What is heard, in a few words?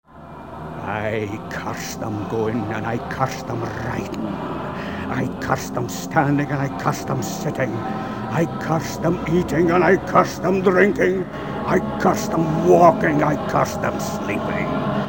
Curse with sounds